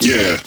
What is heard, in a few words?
Vocal Adlib Male "Yeah"; bass house